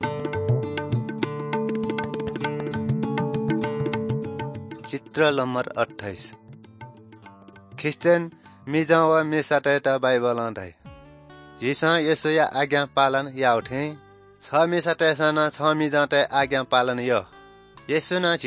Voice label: good news newari balami; bible